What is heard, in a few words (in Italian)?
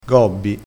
"gobbi", crespinese